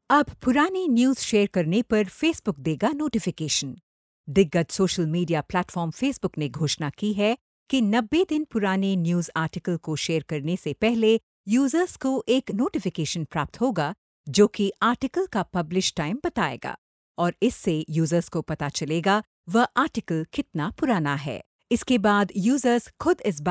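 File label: Hindi corporate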